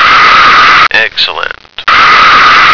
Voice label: "excellent", American

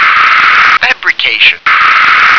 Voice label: "fabricate", English

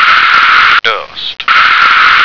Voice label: "dust", American

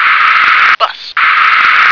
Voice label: "bus", English

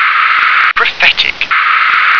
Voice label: "prophetic", English

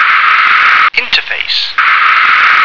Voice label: "interface", English

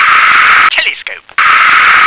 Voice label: "telescope", English

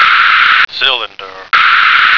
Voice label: "cylinder", American

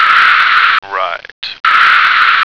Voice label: "write", American

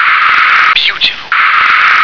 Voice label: "beautiful", English

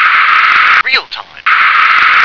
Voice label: "real time", English

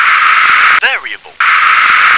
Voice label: "variable", English

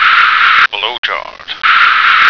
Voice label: "flowchart", American

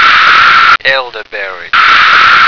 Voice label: "elderberry", American

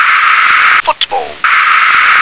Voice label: "football", English

